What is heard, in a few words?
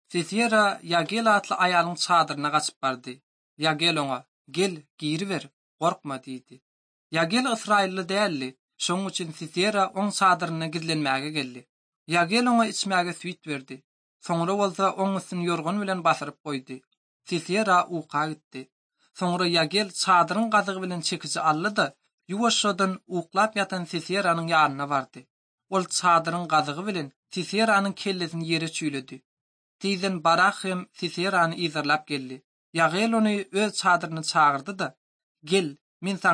turkmen, ot drama